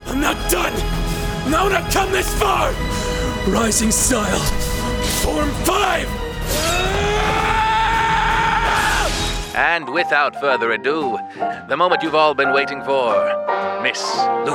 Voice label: Character